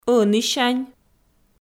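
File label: "ӧнісянь", Komi, female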